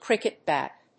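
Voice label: "cricket bat", English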